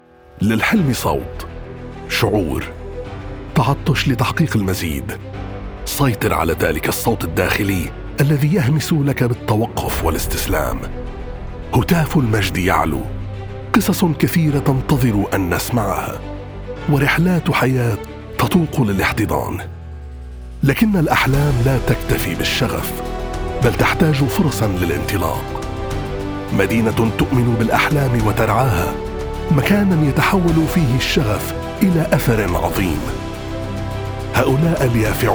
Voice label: MSA Lebanese, voiceover